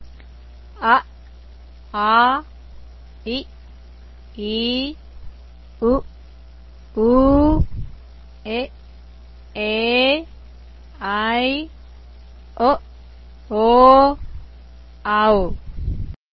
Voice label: vowels, tamil